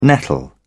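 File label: "nettle", British English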